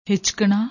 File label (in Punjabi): "hichknna", Punjabi; ਹਿਚਕਣਾ